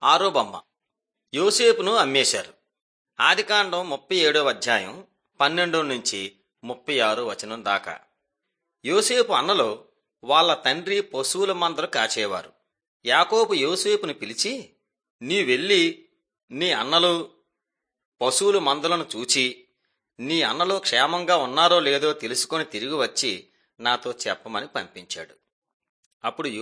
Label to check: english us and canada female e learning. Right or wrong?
Wrong